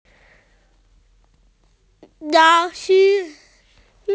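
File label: "teeth", Mandarin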